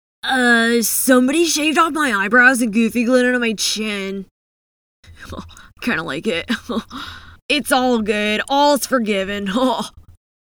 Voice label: Teen, Life Like, voice-over; Glued Dude